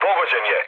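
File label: Serbian aircraft destroyed mood low, speech; War Thunder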